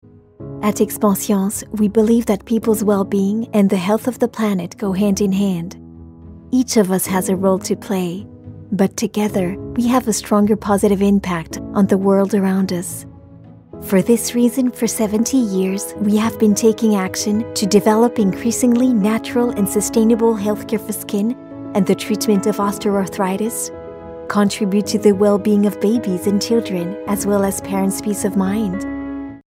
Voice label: EN corpo, Voix-Off Institutionnelle; Expanscience